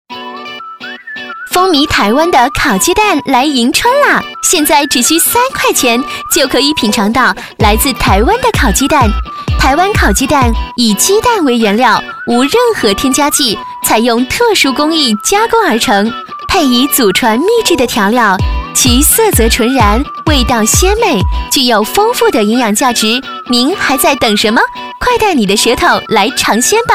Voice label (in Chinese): 女 国 大音; 烤鸡蛋成品